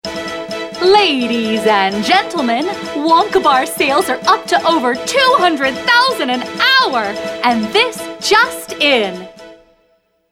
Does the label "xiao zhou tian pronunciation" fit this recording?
No